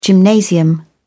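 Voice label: "gymnasium", British English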